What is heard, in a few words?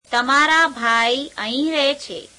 gujarati, your brother lives here; [2nd possessive pronoun]